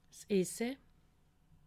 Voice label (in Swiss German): "essay", alsace